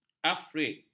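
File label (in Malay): "afrit", Malay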